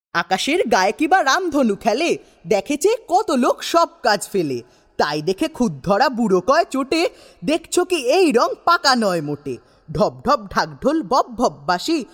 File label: Recitation